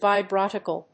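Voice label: "vibrotactile", English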